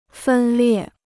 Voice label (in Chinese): "分裂", Chinese